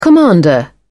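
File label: "commander", English, British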